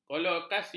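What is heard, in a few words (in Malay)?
"kolokasi", Malay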